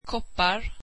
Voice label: swedish cups